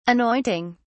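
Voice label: "anointing", British English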